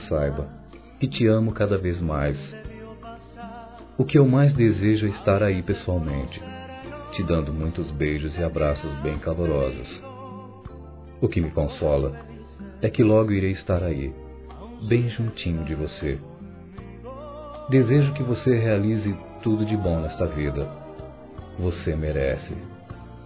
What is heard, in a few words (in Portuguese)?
Aniversário Romântico Distante Masculino; Aniversários